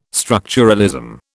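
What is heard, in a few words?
"structuralism", British English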